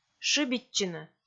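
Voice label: "шыбитчыны", Komi, female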